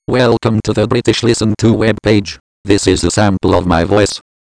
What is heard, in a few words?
british